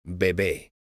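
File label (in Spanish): "bebe", Spanish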